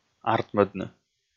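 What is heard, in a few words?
"артмӧдны" Komi, male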